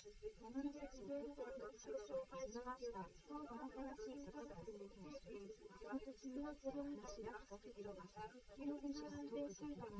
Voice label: female liverec; SiSEC11; underdetermined